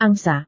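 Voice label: "angsa", Indonesian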